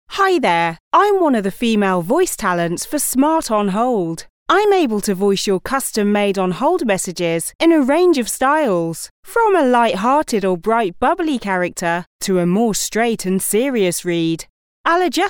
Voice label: FEMALE, on hold